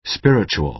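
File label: "spiritual", American English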